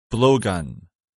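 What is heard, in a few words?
"blowgun", English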